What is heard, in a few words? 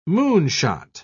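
"moonshot", British English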